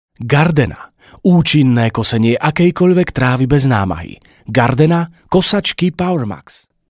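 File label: Slovak, male, commercial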